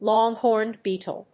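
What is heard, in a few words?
"long-horned beetle", English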